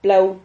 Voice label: "plau", Silabas

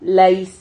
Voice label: "lais", Silabas

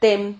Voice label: "tem", Silabas

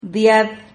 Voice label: "diad", Silabas

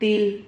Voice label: "dil", Silabas

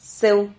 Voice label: "seu", Silabas

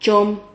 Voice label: "chom", Silabas

